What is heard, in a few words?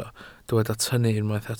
"tynnu", Welsh